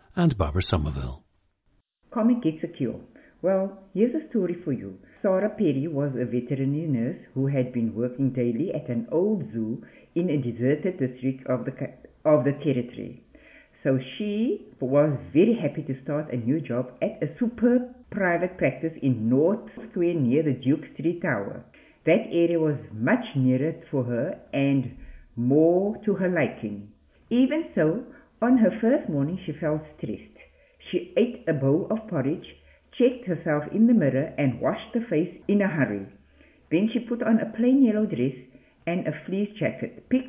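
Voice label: south africa, dialects of English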